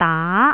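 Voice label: "daa2", Jyutping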